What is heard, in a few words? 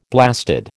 "blasted", American